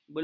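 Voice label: "belungkur", Malay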